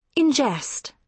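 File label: "ingest", British English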